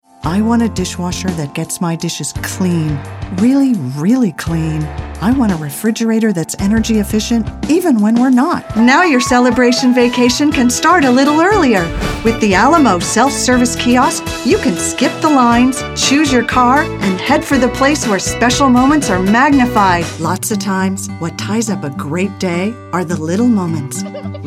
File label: Commercial